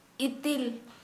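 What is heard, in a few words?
Santali female fat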